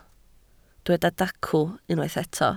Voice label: "dacw", Welsh